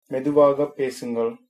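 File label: slowly, Tamil, male; Tamil (formal); மெதுவாக பேசுங்கள்; medhuvaaga pesungal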